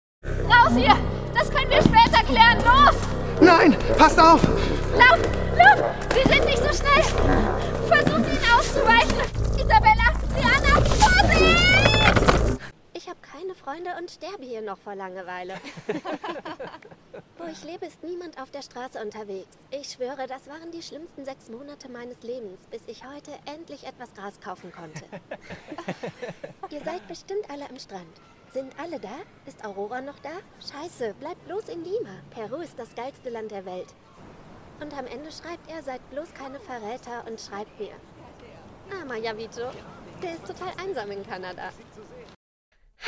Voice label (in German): Synchron, Brief wird vorgelesen, Lip-Sync, German; voice; Synchron I Reinas I Brief wird vorgelesen